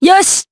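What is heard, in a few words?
Vox Happy, Japanese; Nicky; kingsraid; jp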